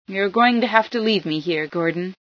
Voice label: stop, female sci